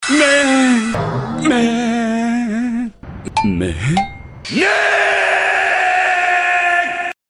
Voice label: rant; ichiya men